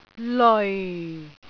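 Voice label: "leoi4", Cantonese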